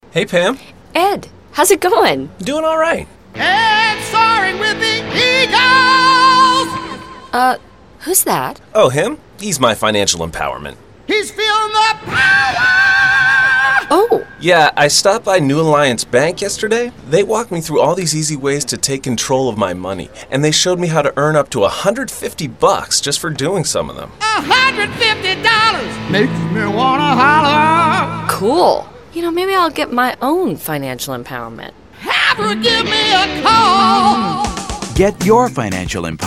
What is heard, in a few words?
Commercial; New Alliance Bank Empowered Ed; Demo Reel